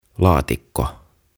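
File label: "Laatikko", "Box", Finnish, English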